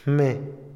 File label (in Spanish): tortilla, Otomí, hombre; INALI